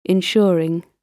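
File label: "insuring", British English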